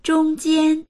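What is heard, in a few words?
"zhong1jian1", Mandarin Chinese; 中间; 中間、真ん中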